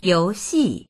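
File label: "you2xi4", Chinese; yóuxì; 游戏; ゲーム、遊び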